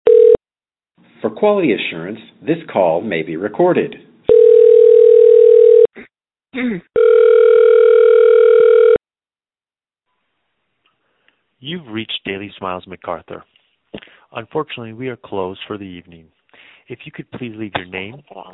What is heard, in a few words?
Call Spanish; Daily Smiles